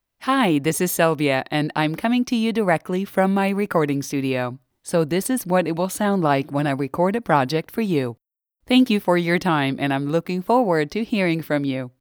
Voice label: studio sample

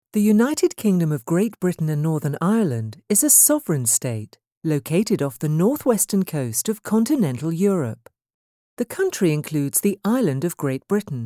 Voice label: Studio Voice only

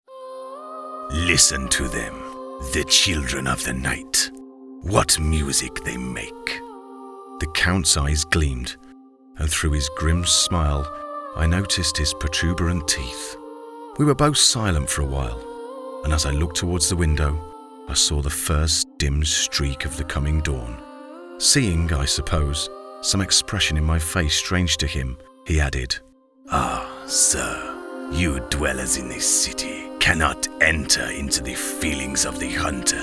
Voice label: audiobook

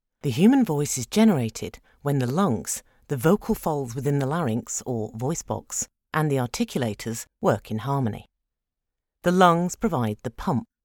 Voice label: medical narration

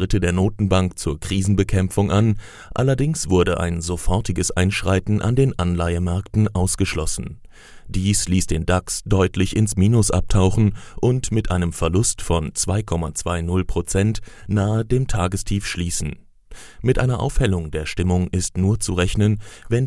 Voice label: Podcast; UBS

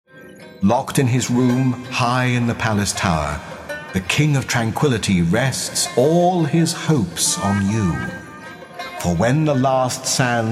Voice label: Character

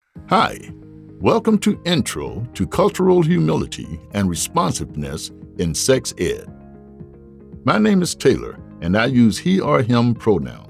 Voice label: CULTURE E-LEARNING COURSE

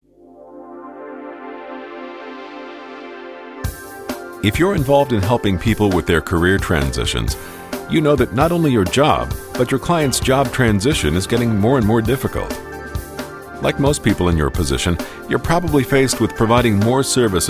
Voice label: Podcast

